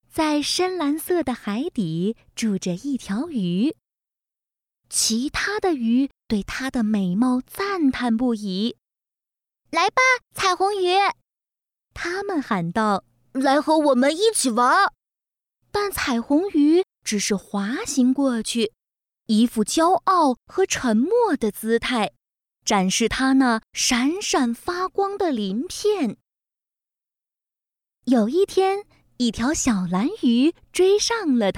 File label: Cartoon Mandarin